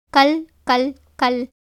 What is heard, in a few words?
Tamil